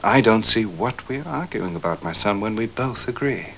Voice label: arguing

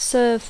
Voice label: "serve", English; verb tr; servir; [ESPORT] servei

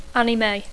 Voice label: "anime", English

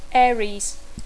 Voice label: "Aries", English

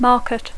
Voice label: "market", English

